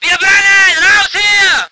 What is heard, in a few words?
voice ger vehicle